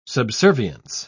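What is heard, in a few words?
"subservience", British English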